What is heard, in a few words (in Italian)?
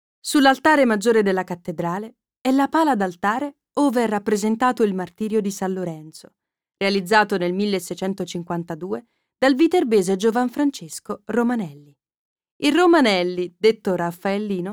Audioguida, voice-over